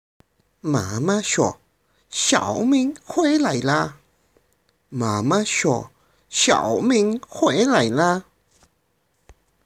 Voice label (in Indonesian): Percakapan; Membaca; pelajaran kedua